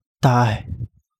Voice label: Kapingamarangi; humpback red snapper